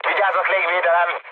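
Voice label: Hungarian damaged aa mood med, speech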